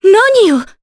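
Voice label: Vox Sur Japanese; Maria; kingsraid; jp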